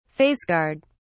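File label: "face guard", British English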